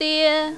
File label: "lie4", mandarin, female